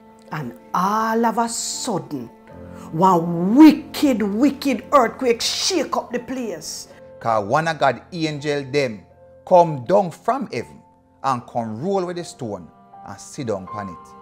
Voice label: verse, jamaican creole